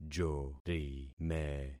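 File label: "jorime", concatenated syllables; Affixing experiment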